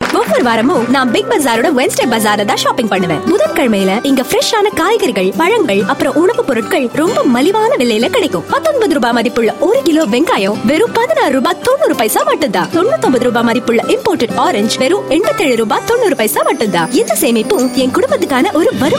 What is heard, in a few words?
Tamil Female Ad; India; Big Bazaar